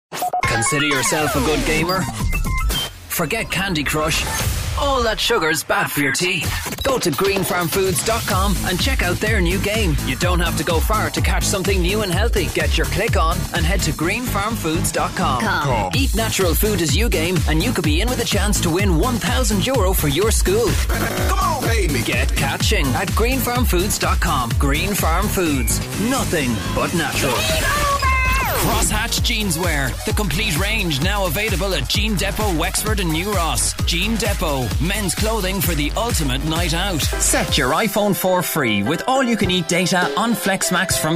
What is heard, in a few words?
southern irish male commercial